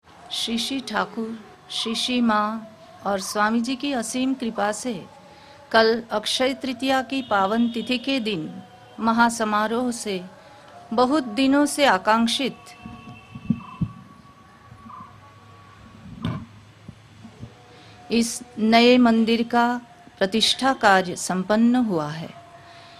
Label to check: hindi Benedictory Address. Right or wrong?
Right